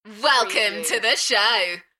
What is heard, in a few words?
UK female, Enthusiastic; beanstalk audio